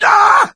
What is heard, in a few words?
incapacitated injury, voice; Left 4 Dead 2; coach